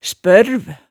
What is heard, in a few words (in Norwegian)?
"spørv", hemsedalsmaalet, tale